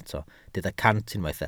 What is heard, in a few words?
"cant", Welsh